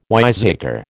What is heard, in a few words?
"wiseacre", American